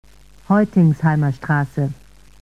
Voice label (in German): Ansage Haltestelle Heutingsheimerstr; SSB AG